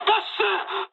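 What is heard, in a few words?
bailout mood med, speech japanese; War Thunder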